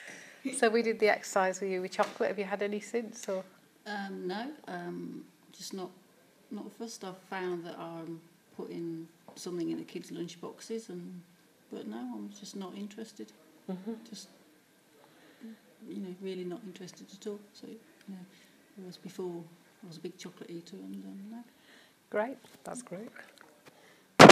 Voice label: Testimonial